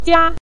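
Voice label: "jia" neutral tone, Mandarin Chinese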